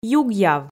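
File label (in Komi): "югъяв", Komi, female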